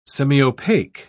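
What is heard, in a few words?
"semiopaque", English, British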